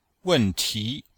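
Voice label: "wen4ti2", Mandarin Chinese; Cours de Chinois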